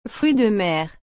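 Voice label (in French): "fruits de mer", French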